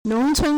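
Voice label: "nong2cun1", Mandarin Chinese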